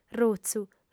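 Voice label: "rutzu", female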